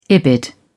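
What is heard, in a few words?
"ibid", British English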